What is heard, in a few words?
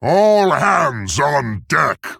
Male Tauren Play